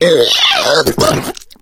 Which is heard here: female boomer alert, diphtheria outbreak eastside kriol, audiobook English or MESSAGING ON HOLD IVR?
female boomer alert